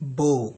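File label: "bo", Nigerian Pidgin, male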